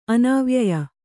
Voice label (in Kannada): "ಅನಾವ್ಯಯ", Kannada